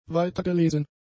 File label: "weitergelesen", German; adjectives